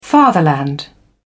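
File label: "fatherland", British English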